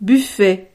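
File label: "buffet", French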